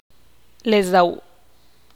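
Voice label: guts, Teotitlán del Valle Zapotec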